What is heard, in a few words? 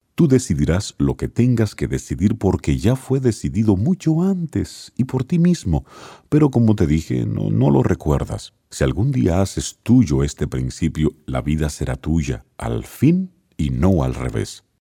Spanish (Latin) Male